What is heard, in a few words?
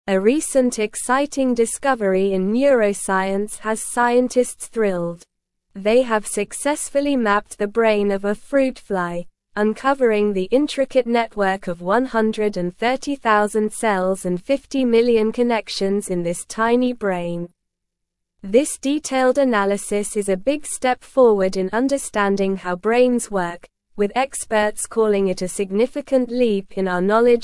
English Upper Intermediate SLOW Reading; Groundbreaking Neuroscience Discovery Mapping a Flys Brain Connections